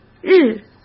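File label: "日", Mandarin Chinese; hsk; chinese-flashcards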